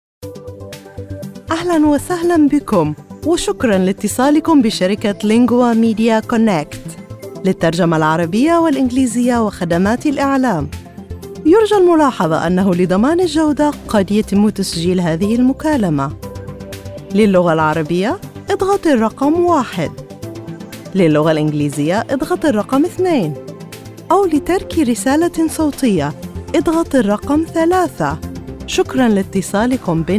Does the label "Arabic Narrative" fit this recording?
Yes